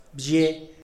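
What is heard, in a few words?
"bzhie", Tlacochahuaya Zapotec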